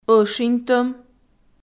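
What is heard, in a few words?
"ӧшиньтӧм", Komi, female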